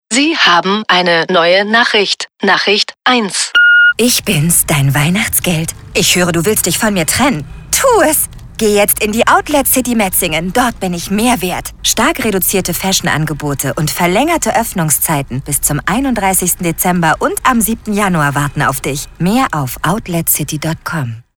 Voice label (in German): mit Hintergrundgeraeuschen; Weihnachtsgeld